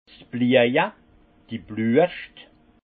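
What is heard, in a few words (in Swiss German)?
"blieje", alsace Haut-Rhin; floraison; vin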